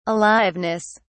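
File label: "aliveness", British English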